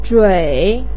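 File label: "zhui" third tone, Mandarin Chinese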